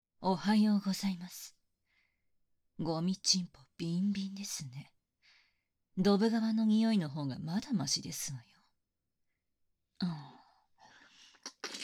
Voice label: アラーム 妹

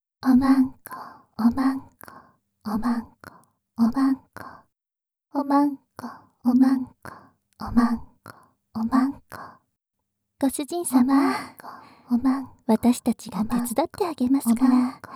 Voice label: 淫語 囁き; メスイキループ; メスイキサポート

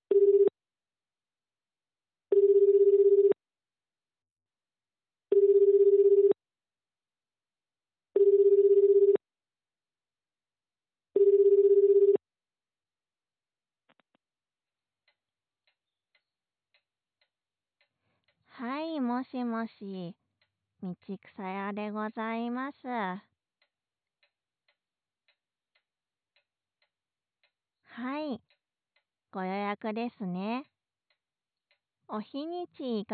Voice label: 予約電話

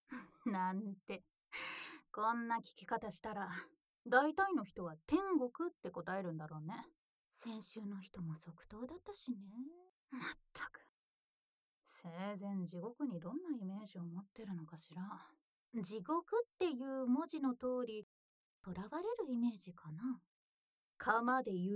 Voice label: 催眠誘導

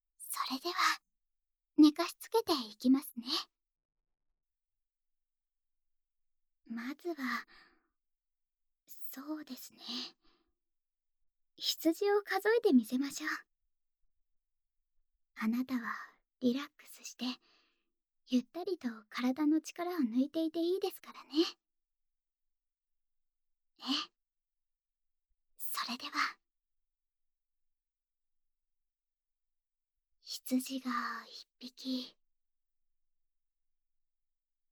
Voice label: 安眠導入寝かしつけボイス, ASMR

